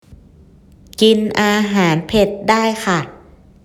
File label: zyosei karai ok